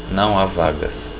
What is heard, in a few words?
no vacancies, portuguese